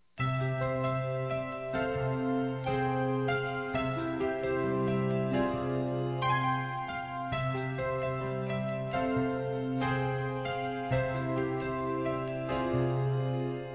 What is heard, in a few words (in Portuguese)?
Natal Romântico Distante Masculino; Telemensagens; Natal e Ano novo